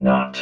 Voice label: "not", robot vox